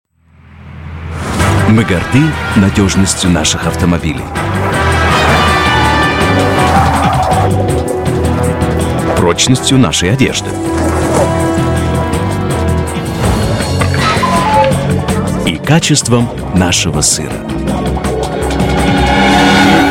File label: Russian voiceover movie trailer